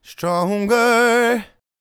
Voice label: "Stronger", vocal phrases; Ultimate Vocals

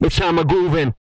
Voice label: groovin, Vocal, R&B Dance, hip-hop; TB HIP-HOP

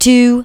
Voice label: "two", spoken; Techno Trance Essentials

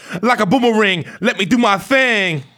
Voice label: RAP PHRASE; RAPPER 1-2

